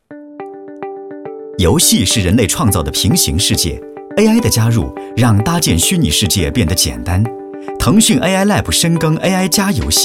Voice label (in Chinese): 男, 科技感, 年轻, 互联网游戏专题